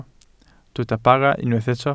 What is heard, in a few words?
"bara", Welsh